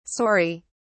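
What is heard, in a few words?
"sori", British English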